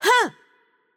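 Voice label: Attack Light, Arabic; bloom minigame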